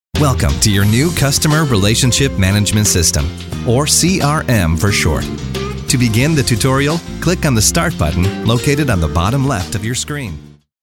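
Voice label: American English, male, e-learning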